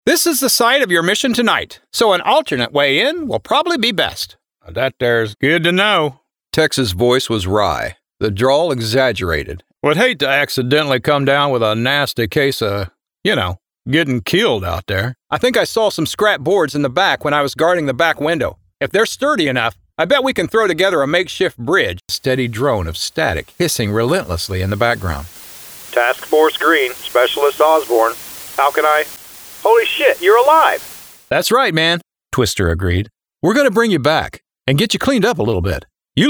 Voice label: Audiobook Characters